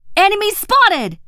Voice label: FEMALE SEE ENEMY; combatarms